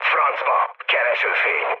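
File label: Hungarian searchlite mood med, speech; War Thunder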